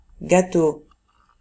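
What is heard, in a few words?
"gateau", French; famille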